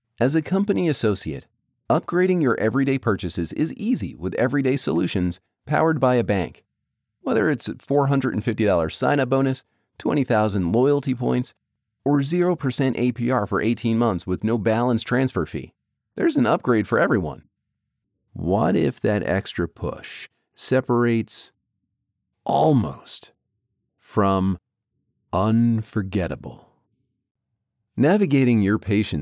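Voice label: Corporate Narration Event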